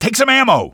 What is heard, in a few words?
ammo, male; Half-Life 2